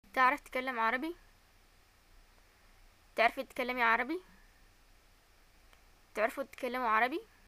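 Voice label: do u speak arabic, Yemeni Arabic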